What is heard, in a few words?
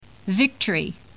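"VICTORY", English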